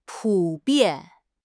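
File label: "pu3 bian4", Mandarin Chinese; HSK4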